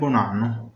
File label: new year, Venetian